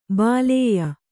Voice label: "ಬಾಲೇಯ", Kannada